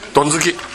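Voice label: "donzuki", Minamiyamagata dialect Japanese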